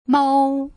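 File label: "mou", Putonghua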